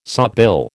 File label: "sawbill", American